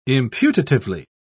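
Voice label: "imputatively", British English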